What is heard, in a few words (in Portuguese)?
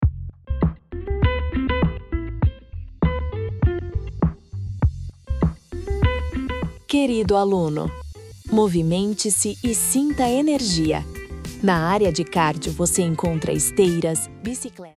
Vinheta Comercial Profissional em Audio Area de Cardio Academia